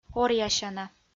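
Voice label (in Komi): "оръясяна", Komi, female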